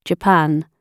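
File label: "japan", British English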